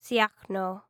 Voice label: female repair; hellenes

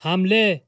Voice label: male attack, persian

